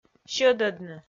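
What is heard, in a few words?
"сьӧдӧдны", Komi, female; kpv audio dict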